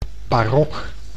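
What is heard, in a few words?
"barok", Dutch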